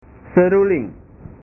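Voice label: "seruling", Indonesian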